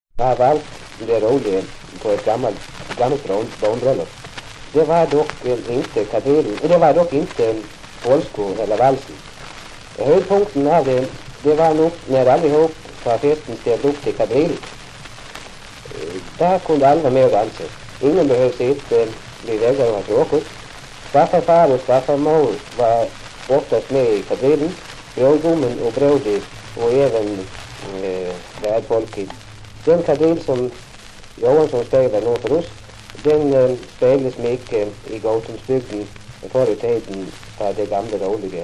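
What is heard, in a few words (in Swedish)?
Gothem Gotland, dialekter; Folkminnen; Svenska sagor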